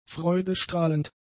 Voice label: "freudestrahlend", German; adjectives